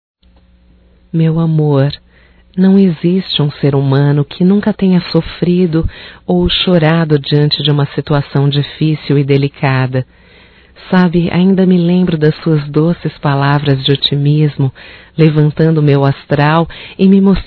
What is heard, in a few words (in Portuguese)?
Romântico Feminino